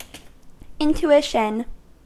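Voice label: "intuition", US English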